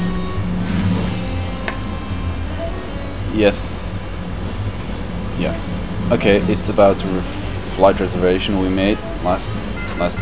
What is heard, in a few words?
telephone